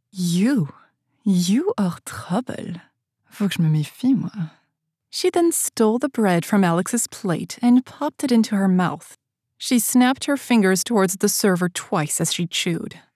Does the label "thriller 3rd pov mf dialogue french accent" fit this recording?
Yes